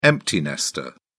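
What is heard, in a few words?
"empty nester", British English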